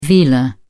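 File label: "velar", British English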